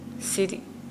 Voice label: Nukuoro; ask

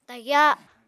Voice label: "tagyá", Apatani

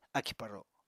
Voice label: "akì-paro", Apatani

